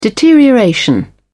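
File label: "deterioration", British English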